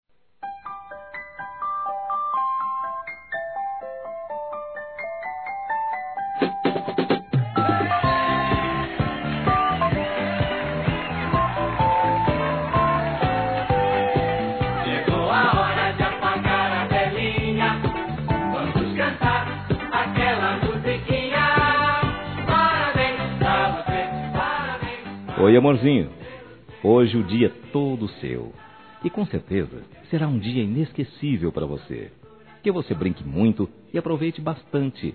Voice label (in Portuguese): SOBRINHO Criança Masculino; Telemensagens 2017; Aniversários; Aniversário Infantil